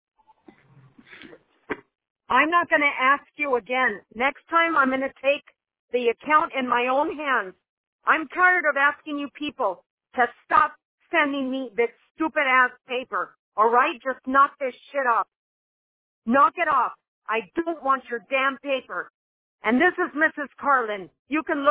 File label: phone call